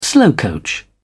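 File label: "slowcoach", British English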